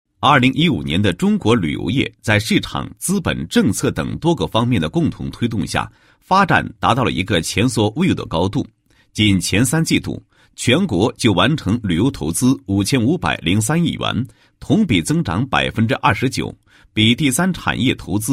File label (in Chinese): 男 房地产广告 宣传简介, 配音; 远辰 世客城